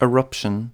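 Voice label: "eruption", English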